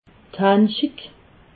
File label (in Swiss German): "handschig", alsace